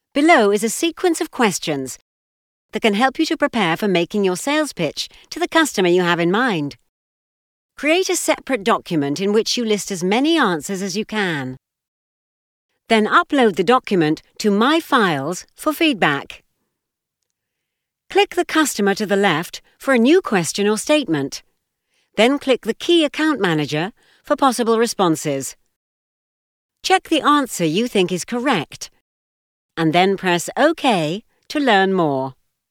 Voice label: e learning

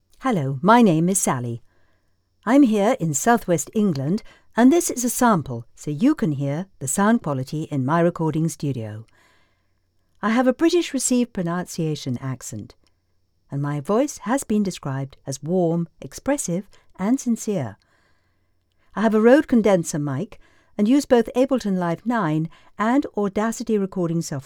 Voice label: Studio Quality Sample

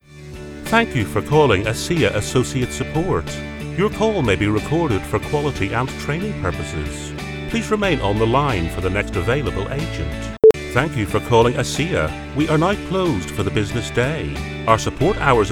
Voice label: Telephone

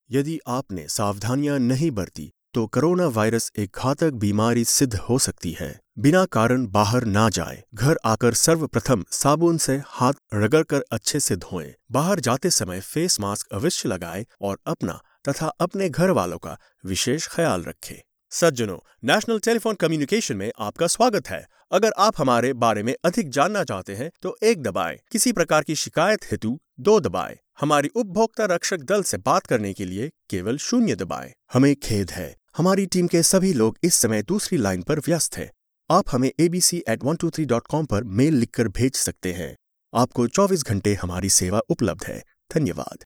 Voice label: Hindi Telephony

